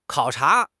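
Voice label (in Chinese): "考察", Chinese; HSK6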